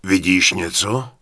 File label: Human Male Warrior NPC Greeting; wowpreklad; czwow